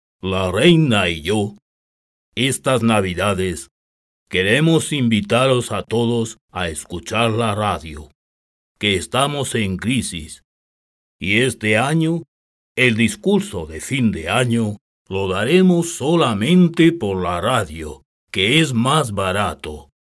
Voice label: locutor imitador rey navidad

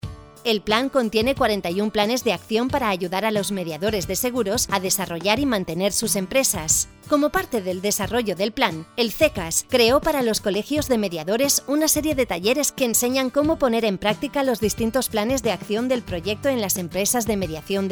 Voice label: locutora spanish voice over, locutora española; Locución para web de seguros

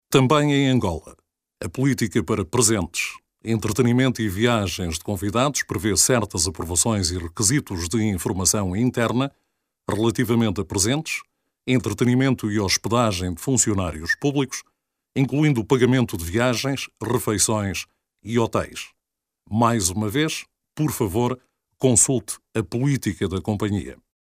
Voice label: locutor angola

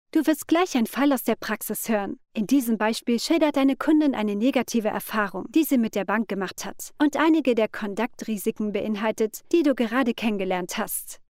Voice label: german voiceover german voiceover, locutora alemana

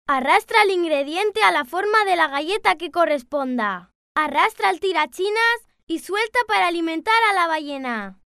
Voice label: kid voiceover locutora infantil locucion app; locutor infantil. Locución para aplicación educativa

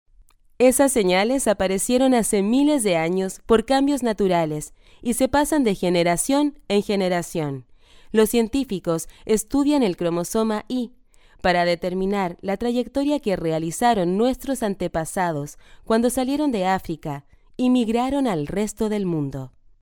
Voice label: latin american voiceover locutora espanol neutro; locutora latinoamericana, Latin American voice over

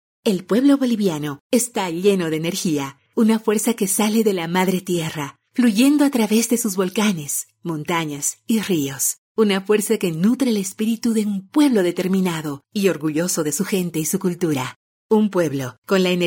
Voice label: locutora boliviana bolivian voiceover; Bolivian female voice overs; Bolivian voice talent

